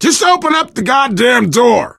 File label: world small town npc bellman, player survivor voice biker; source engine; left 4 dead 2